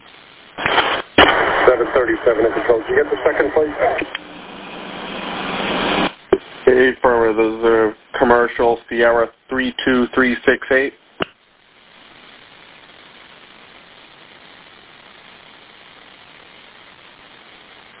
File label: Police Radio